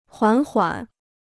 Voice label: "缓缓", Chinese

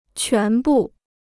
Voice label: "全部", Chinese

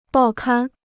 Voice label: "报刊", Chinese

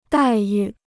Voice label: "待遇", Chinese

